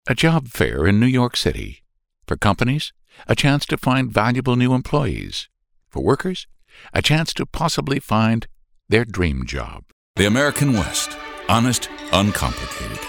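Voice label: commercial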